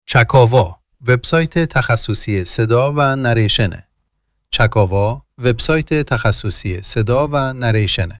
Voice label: tablighati, male

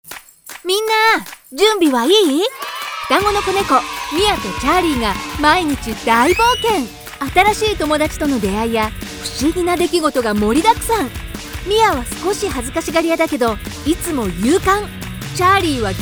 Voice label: cartoon; Japanese

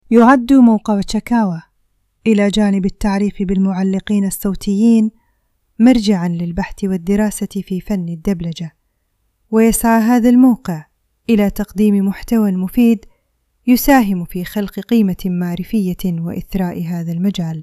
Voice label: female, eco narration; arabic

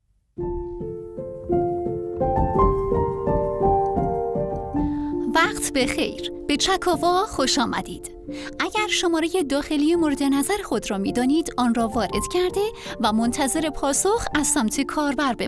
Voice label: operator, female narrators

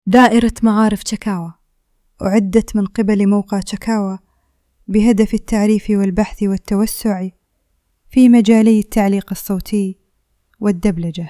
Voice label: male, eco narration; arabic

